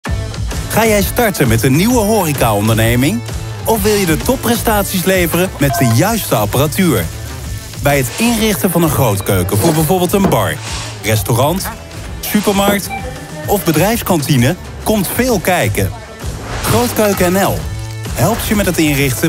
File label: voice over